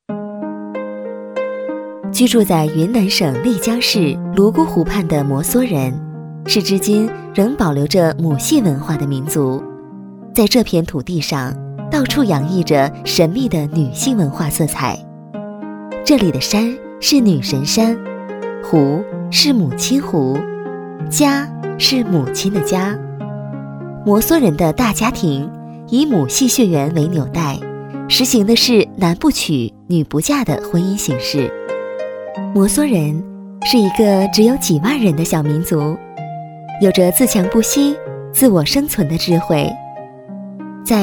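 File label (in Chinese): 专题 讲述, 女, 普通话; 摩梭族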